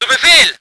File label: voice ger vehicle